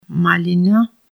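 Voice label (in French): "framboise", Armenian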